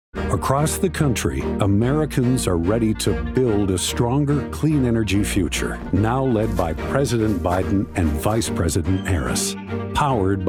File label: Commercial environment hopeful global passionate; Clean Choice Energy